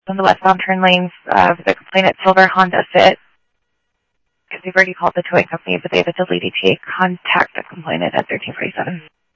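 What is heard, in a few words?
police department, scanner audio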